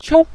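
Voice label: "qiu", Mandarin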